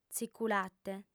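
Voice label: "tziculate", Sardinian, female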